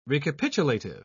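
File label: "recapitulative", British English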